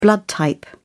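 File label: "blood type", British English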